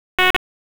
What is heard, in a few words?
FIRE OPS, p25; wake